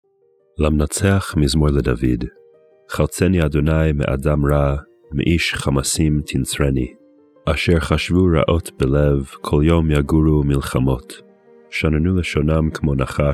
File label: poetry and prayer psalm hebrew